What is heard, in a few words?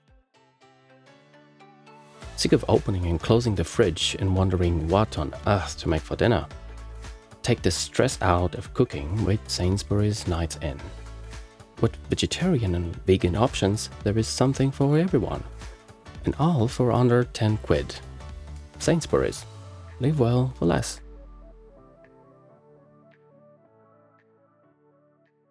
English; Sainsburys